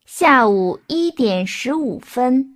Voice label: Mandarin Conversation